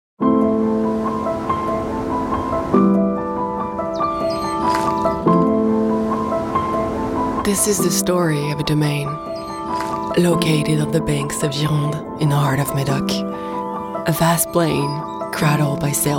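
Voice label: french voice natural, female; french campaign voice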